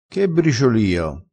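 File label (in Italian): "briciolio", vocabolario dialettale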